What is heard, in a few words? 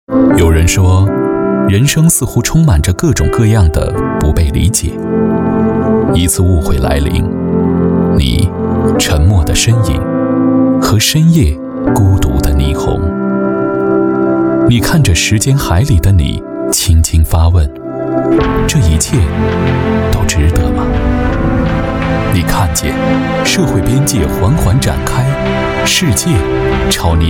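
Chinese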